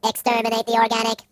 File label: Gonna get you, Robot; hedgewars